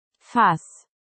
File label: attack or bite dog command in german